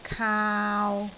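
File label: "kaau3", Cantonese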